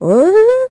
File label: confused; Crypt of the NecroDancer